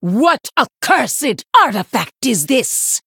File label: Female Human TRIGGER Amulet of Undying